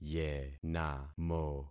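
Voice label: "yenamo", concatenated syllables; Affixing experiment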